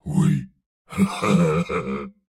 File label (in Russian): "Ой", Фраза; Турбосвин